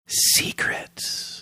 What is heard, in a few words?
quietly saying "secrets"